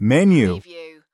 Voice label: "menu", US male; lynne publishing

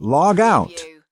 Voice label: US male log out; lynne publishing

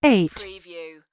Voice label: US female phone prompt; lynne publishing

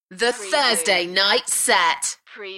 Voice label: UK female The thursday night set; Shows & Events; beanstalk audio

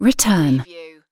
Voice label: UK female return; lynne publishing

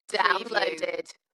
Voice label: UK female Downloaded Dalekness; beanstalk audio

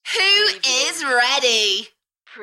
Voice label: UK female, Who is ready, Enthusiastic; beanstalk audio

